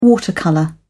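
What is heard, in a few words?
"watercolour", British English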